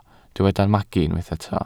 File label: "magu", Welsh